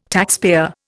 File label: "taxpayer", Indian